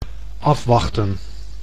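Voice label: "afwachten", Dutch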